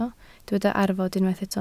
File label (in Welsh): "arfod", Welsh